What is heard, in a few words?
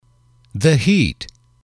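"the heat", English, male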